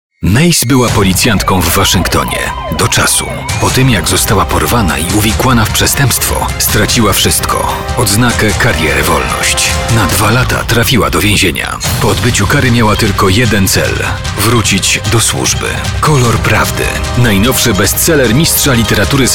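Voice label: Polish, male, commercial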